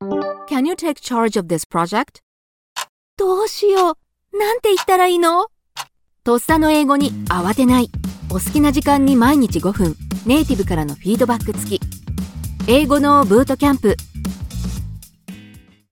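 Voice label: Japanese, Commercial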